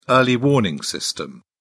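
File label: "early warning system", British English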